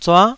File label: "zua9", taiwanese